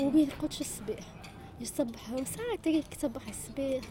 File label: woman in cafe